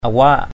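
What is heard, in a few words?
"awak", Cham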